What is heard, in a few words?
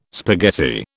"spaghetti", British